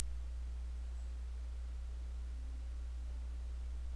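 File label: "beam", spoken word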